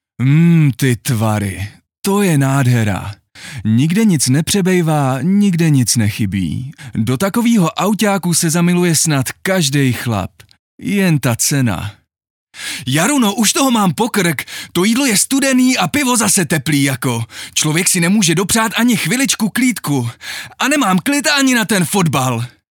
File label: Character